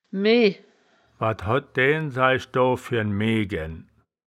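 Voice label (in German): "meeh", Wittlicher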